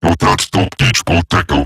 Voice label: Patrol Robot Large, Barks, Battle; Warframe; Eximus Corpus Robotic Large

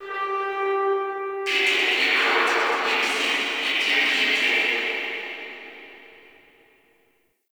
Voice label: Guild Announcer; Warframe

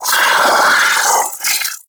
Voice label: Vocal Attack; Warframe; Sentients; Battalyst

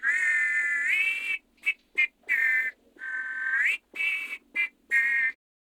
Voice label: Patrol, Male

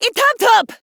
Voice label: Cover Me Female; en; Modular Corpus; Battle Barks

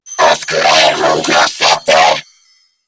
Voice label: Female, Grineer; New War; Narmer; Patrol

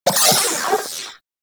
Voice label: Vocal Death

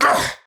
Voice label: Pain; Warframe; Tau; Dax; Knight

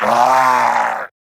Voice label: pain, wolf gangster; Warframe; Grineer; Battle Barks

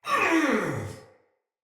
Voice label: Death Finisher Soldier Male; Battle; Barks; Dialog; Sinecure Soldier Male A

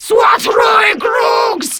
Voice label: Reload Grineer Female; Warframe; Battle Barks

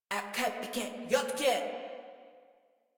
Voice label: Patrol Female; Warframe; Modular Corpus Female

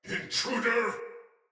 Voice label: See Player Shout, Battle; Barks; Soldier; Sinecure Soldier

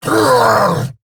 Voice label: Attack, en; Warframe; Eidolon Grineer; Battle Barks

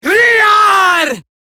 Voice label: Taunt, Female; Warframe; Eximus Grineer Female; Battle Barks

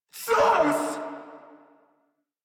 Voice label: Surprised Crewmen; Warframe; Corpus Crewmen; Crewman D

